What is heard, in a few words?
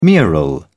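"mural", British English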